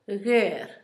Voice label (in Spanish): hoyo, Zapoteco, Asunción Ocotlan; INALI; Haspelmath